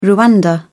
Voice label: SPOKEN Rwanda; africa

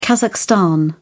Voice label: SPOKEN Kazakhstan; asia

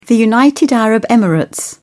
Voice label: "United Arab Emirates", spoken

